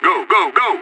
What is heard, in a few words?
radio lets go, player vo; idf